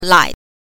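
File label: "lai", Mandarin Chinese pinyin, neutral tone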